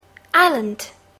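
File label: "island", English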